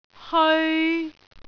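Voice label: "heoi3", Cantonese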